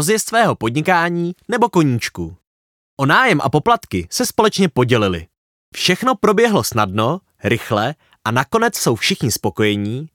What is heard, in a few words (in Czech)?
sdilej prostor VO, krasny muzsky hlas; dabing